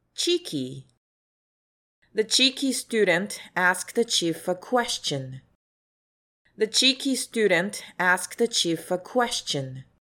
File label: cheeky